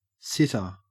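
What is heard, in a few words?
"sitter" English, Australian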